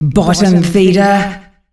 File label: bottom feeder, Announcer Voice, female; Unreal Tournament; ut2004